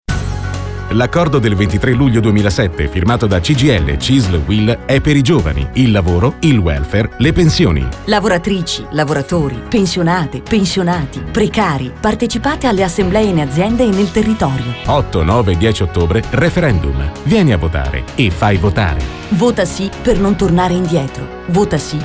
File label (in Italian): spot referendum